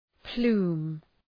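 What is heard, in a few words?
"plume", English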